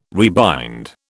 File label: "rebind", British